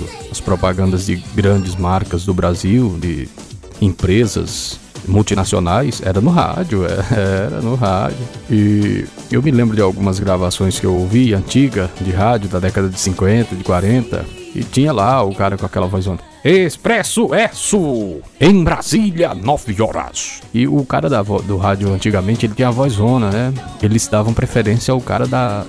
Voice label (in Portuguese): podcast profissao locutor